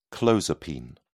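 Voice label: "clozapine", English, British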